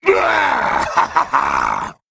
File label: Male Worgen Attack